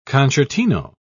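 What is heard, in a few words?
"concertino", British English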